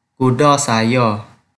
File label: Kelantan-Pattani Malay